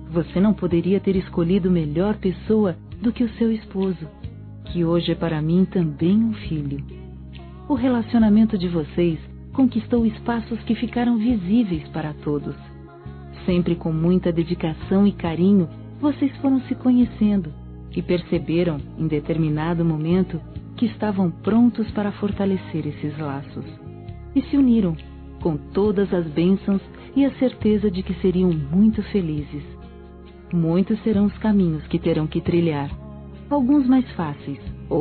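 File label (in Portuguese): CASAMENTO FILHA F, Telemensagens, Fem; Aniversários; Aniv Familiar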